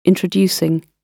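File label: "introducing", British English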